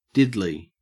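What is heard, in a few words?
"diddly", Australian English; pronunciations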